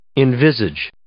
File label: "envisage", English